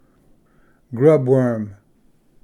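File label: "grubworm", English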